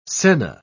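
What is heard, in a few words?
"senna", British English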